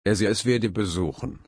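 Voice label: "besuchen", German, slow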